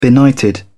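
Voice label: "benighted", English, British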